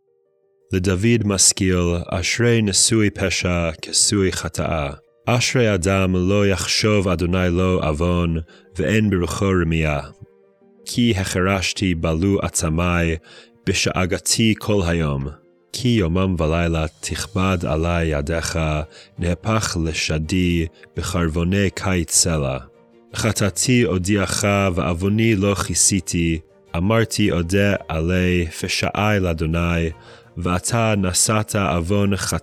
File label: poetry and prayer psalm hebrew